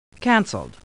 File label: Computer Voice; Star Trek